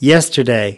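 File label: "yesterday", English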